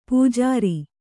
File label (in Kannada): "ಪೂಜಾರಿ", kannada